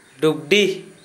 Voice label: garden, Gta, Dialect: Hill; garden, orchard; household

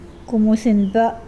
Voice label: fist, Mokilese

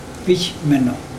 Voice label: that pig, mokilese

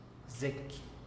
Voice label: "zeky", teotitlan; verdad

